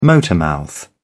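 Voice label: "motormouth", British English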